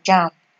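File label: "jam", Nigerian Pidgin, female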